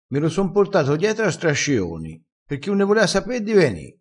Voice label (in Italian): "a strascioni", Crespinese